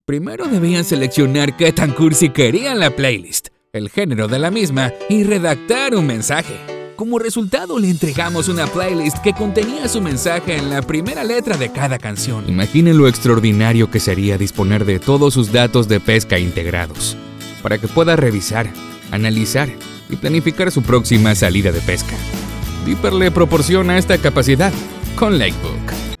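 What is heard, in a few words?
Latin Neutral Spanish Internet Video, voiceover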